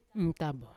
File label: "ntabo" one, Yawa